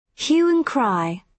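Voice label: "hue and cry", British English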